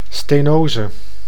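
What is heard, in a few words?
"stenose", Dutch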